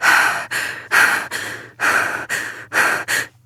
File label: Operator Voice; Warframe; Chimera